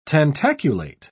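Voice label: "tentaculate", British English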